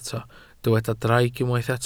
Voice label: "draig", Welsh